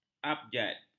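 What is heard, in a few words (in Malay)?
"abjad", Malay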